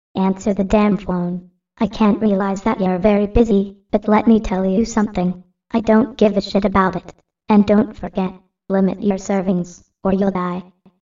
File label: A Creepy Kid Calls